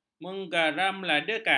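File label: "menggaramladakan", Malay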